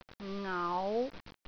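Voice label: "ngau5", Cantonese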